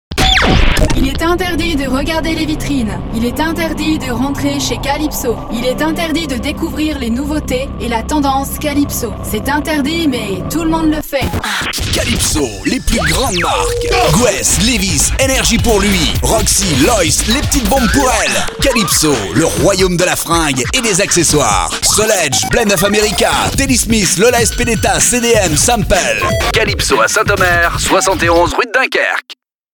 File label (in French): radiopub spot